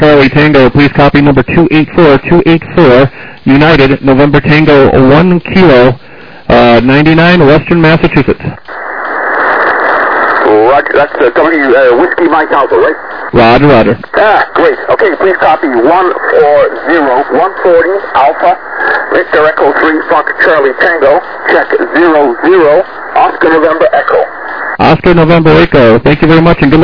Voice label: LSB, 7MHz